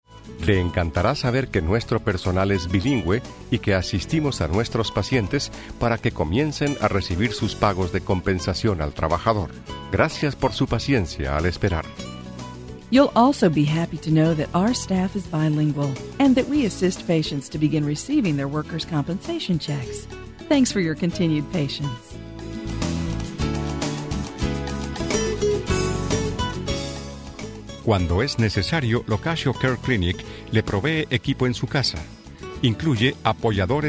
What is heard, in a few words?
spanish english